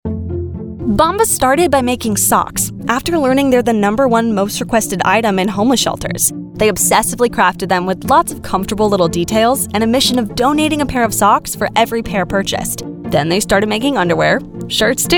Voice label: Commercial Teen YA